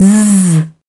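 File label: "zit", British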